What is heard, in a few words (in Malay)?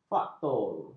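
"faktor", Malay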